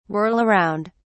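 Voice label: "whirl around", British English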